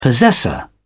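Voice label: "possessor", British English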